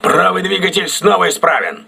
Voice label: voice message right engine repaired, crew ships russian